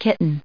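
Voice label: "kitten", English, us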